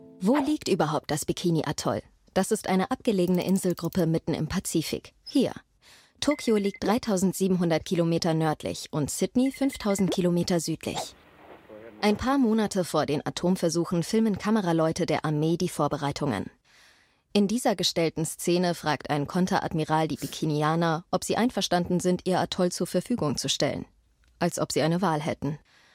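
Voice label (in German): Erklärfilm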